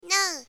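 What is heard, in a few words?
"no", Japanese female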